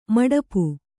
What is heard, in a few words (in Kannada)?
"ಮಡಿಪು", Kannada